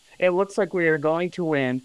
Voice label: Voice Acting, es, M

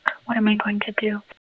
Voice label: Voice Acting es

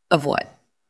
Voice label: VoiceActing es F

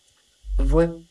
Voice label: Voice Acting, es, F0

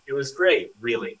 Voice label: Voice Acting es M

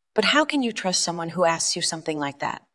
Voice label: VoiceActing es

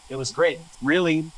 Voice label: Voice Acting, es

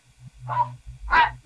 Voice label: Voice Acting, es, F0

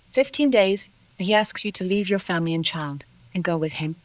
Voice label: Voice Acting es

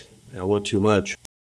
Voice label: Voice Acting, es, M

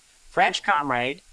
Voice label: Voice Acting es M

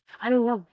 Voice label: Voice Acting es F3

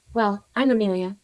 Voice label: Voice Acting, es, F0

